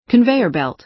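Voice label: "conveyor belt", American English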